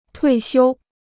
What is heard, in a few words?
"退休", Chinese